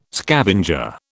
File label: "scavenger", British